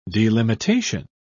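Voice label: "delimitation", British English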